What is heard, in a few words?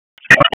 Police North District Tac 1, p25; wake